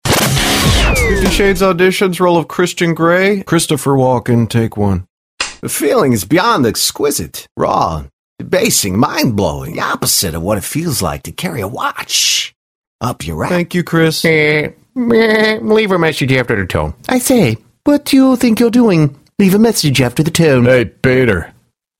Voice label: Voice Impressions